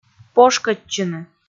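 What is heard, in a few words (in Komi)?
"пошкӧдчыны" Komi, female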